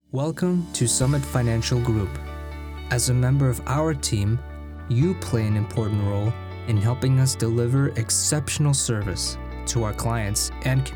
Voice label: E Learning